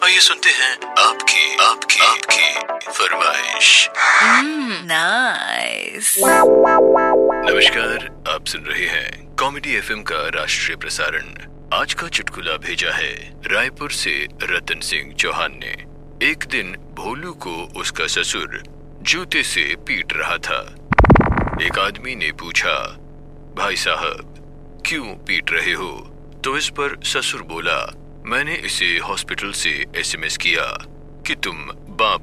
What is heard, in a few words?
Funny spoof AIR Style; Aapki Farmaish